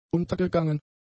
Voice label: "untergegangen", German; adjectives

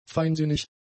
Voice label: "feinsinnig", german; adjectives

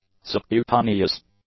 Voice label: "subcutaneous", English; adjectives

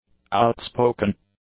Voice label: "outspoken", English; adjectives

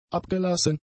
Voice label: "abgelassen", German; adjectives

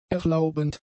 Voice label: "erlaubend", German; adjectives

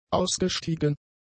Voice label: "ausgestiegen", German; adjectives

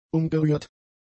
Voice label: "umgerührt", German; adjectives

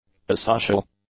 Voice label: "asocial", English; adjectives

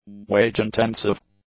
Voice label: "wage-intensive", English; adjectives

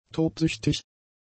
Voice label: "tobsüchtig", German; adjectives

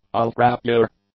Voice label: "ultrapure", English; adjectives

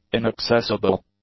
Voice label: "inaccessible", English; adjectives

